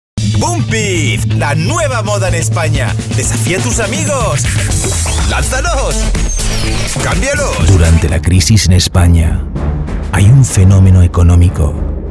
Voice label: Spanish Commercials

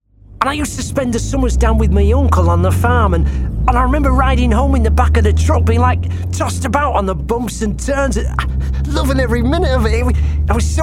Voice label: Game Voices British Accents

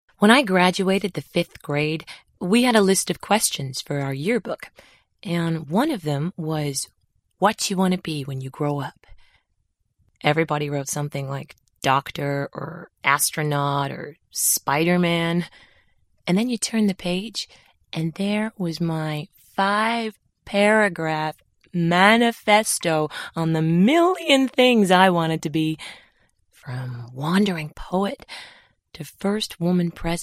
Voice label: American Accent; My Name Is Rachel Corrie

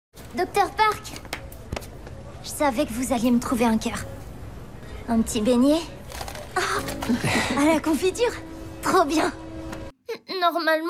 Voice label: French Children

